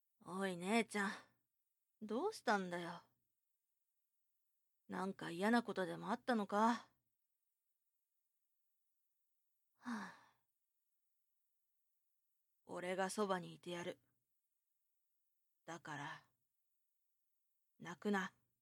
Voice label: voice boy